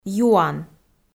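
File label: "юан", Komi, female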